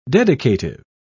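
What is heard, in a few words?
"dedicative", British English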